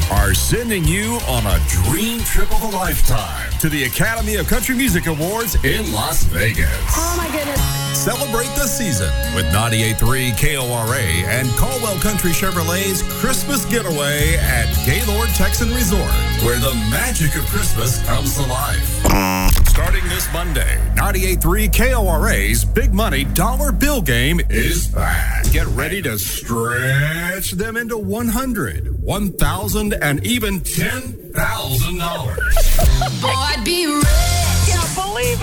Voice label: station promo montage